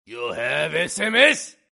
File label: angry japanese sms, ringtone